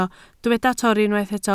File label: "torri", Welsh